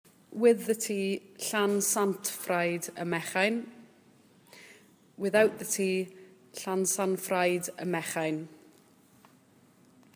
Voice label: Welsh village to t or not to t; llansanffraid ym mechain